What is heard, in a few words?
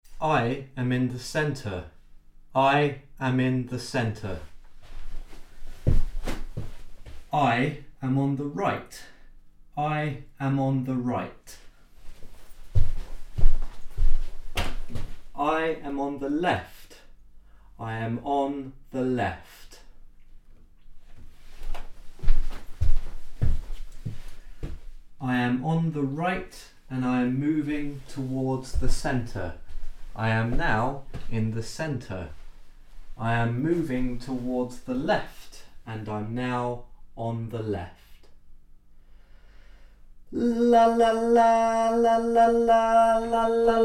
Voice in a Room - ORTF